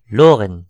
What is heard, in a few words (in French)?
"lorraine", br; france; gcompris